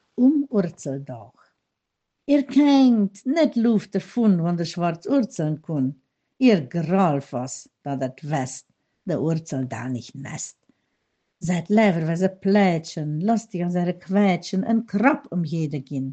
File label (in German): kinderverse, mundart; Kinderecke; Um Urzeldåch